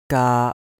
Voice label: "gaa1", Cantonese

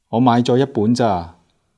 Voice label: "zaa3", Cantonese

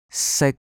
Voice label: "sik1" Cantonese

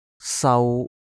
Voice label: "sau1", cantonese

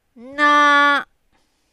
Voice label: "naa", Cantonese

